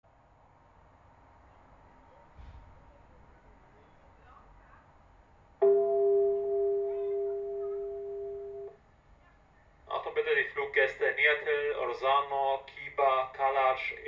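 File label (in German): Achtung bitte die Fluggäste, Flughafen Ansagen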